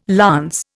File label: "lance", Indian